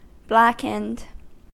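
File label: "blackened", American English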